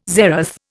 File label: "zeroth", Indian